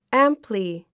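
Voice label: "amply", English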